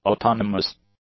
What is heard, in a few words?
"autonomous", English; adjectives